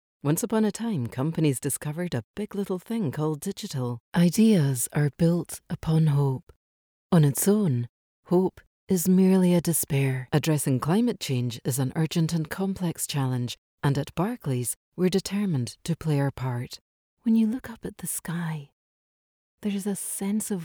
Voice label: english scottish narration

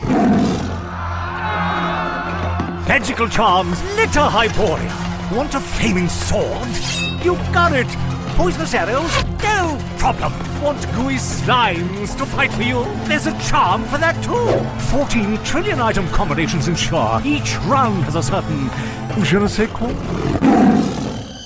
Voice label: English British animation

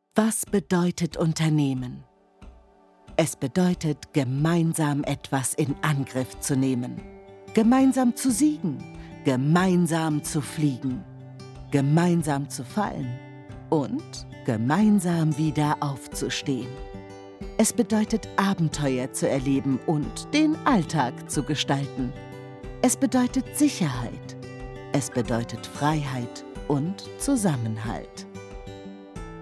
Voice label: german corporate videos

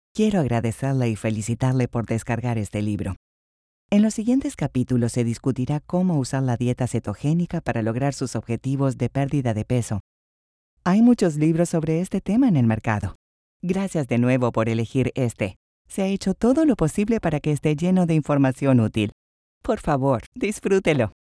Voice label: spanish latin american audiobooks

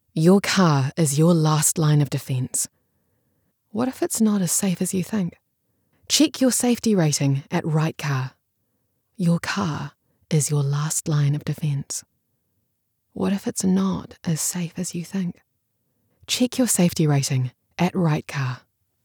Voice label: english new zealand automotive

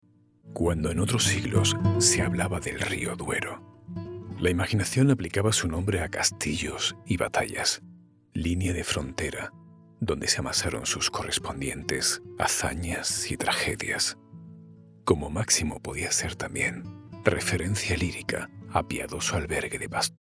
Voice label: spanish documentaries

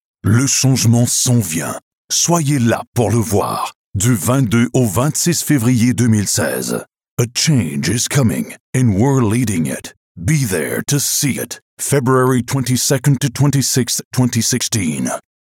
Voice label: french canadian movie trailers

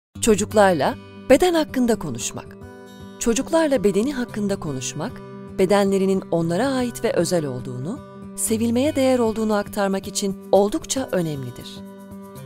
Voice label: turkish e-learning

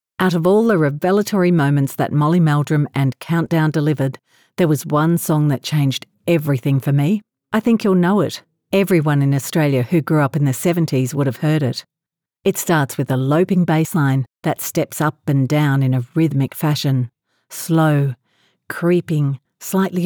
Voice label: english australian narration

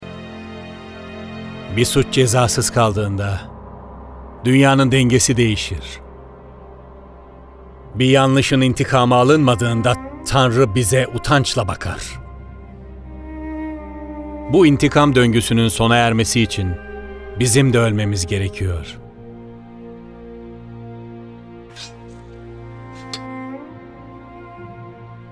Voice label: turkish

